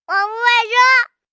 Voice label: Whine hungry, Whine, 1 boy, 4 yrs, Mandarin - (I'm tired), human voice, child, kid; speak, talk; Whining; Voice Box